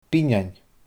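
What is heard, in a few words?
"binnean", Scottish Gaelic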